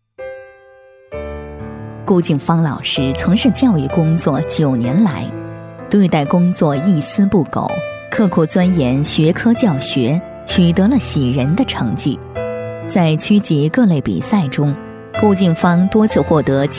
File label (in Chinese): 女 人物专题 赞颂教师-平稳叙述, 深情感人